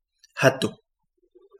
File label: rock, Kapingamarangi